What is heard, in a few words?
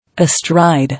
"Astride", English